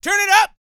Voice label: male spoken; R&B Dance